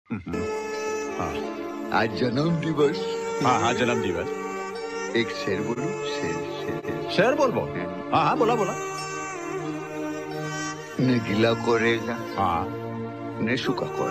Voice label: Sad Dialogue Ringtone; Amitab bacchan; Nana Patekar

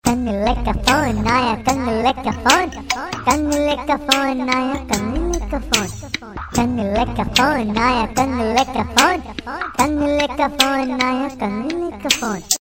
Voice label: Phone Aya Ringtone

